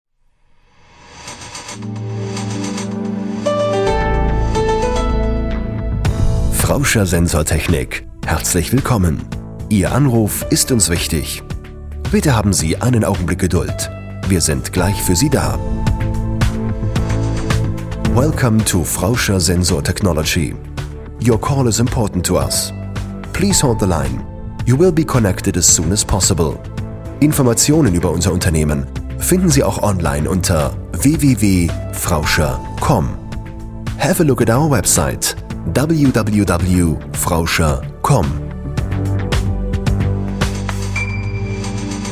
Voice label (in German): Kommentar, Freundlich